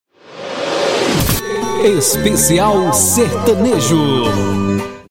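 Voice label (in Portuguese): vinhetas especial sertanejo voz e producao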